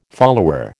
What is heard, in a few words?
"follower", American